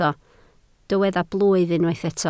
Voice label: "blwydd", Welsh